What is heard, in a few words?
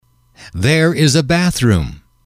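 there is a bathroom, male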